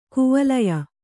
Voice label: "ಕೈವಲ್ಯ", Kannada